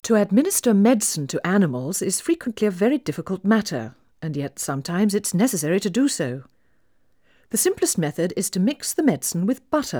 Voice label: Female speech English Mono output noised, 3bit